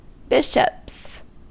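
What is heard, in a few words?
"bishops", American English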